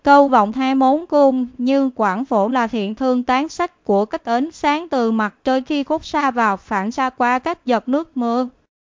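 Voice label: speaker, American English; piper-voices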